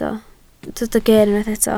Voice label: "ger", Welsh